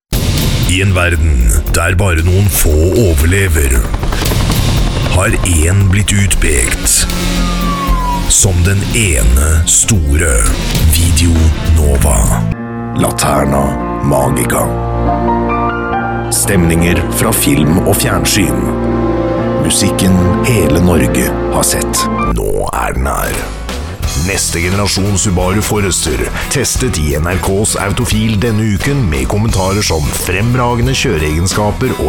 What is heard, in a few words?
Norwegian